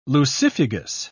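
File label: "lucifugous", British English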